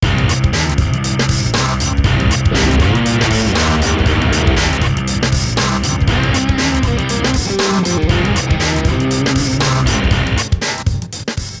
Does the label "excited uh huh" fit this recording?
No